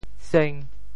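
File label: "seng5", Teochew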